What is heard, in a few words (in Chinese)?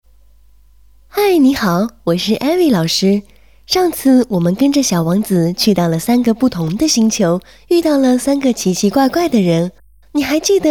儿童故事 中英; 小王子